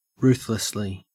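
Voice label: "ruthlessly", Australian English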